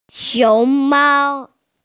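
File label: "xiong2mao1", Mandarin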